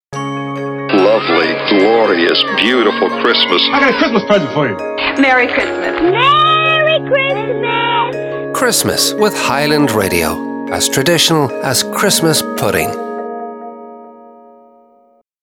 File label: Radio Imaging Christmas Promo; Highland Radio